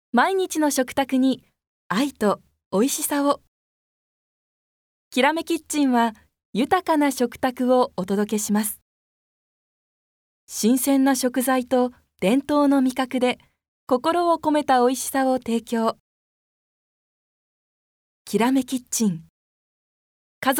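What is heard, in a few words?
narration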